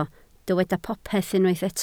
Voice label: "popeth", Welsh